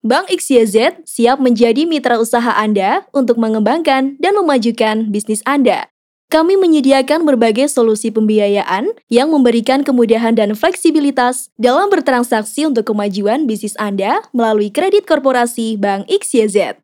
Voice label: FORMAL INDONESIA